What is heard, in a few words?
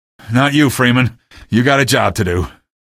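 citizen deny train, male; vo; npc; source engine; episodic; episode 1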